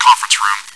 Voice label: chitchat; svencoop

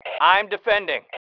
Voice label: i am defending, male

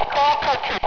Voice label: gr radio

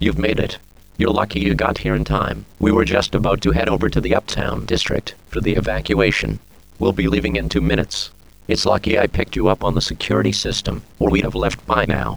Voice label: worker vox

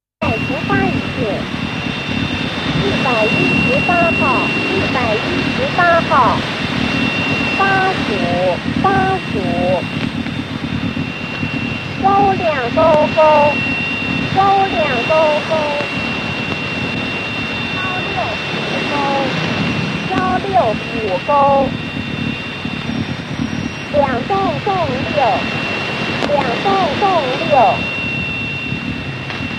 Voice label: chinese numbers; svencoop; sharktopia

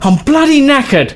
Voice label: Dying, male, voice commands; svencoop

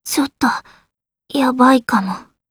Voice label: help, voice commands; svencoop; Blanc

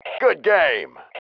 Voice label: GOOD GAME, male

